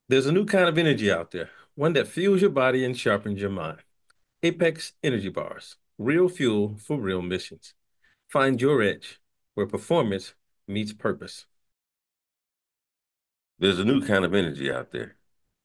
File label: commercial; demo reel; Commercial Voiceover